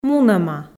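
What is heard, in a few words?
"мунӧма", Komi, female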